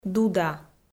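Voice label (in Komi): "дуда", Komi, female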